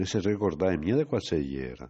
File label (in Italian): frasi in bergamasco